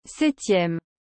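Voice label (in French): "septieme", French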